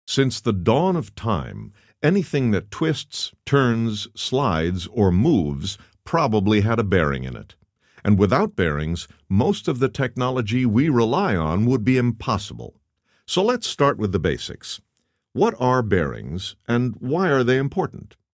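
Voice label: voice over male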